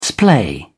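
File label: "splay", British English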